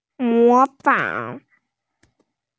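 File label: "mo fang", Mandarin Chinese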